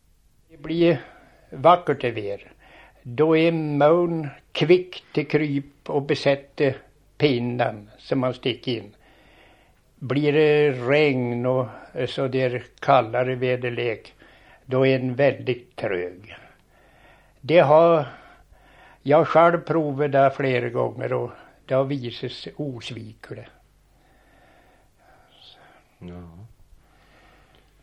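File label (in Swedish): Marieby Jämtland, dialekter; Folkminnen; Svenska sagor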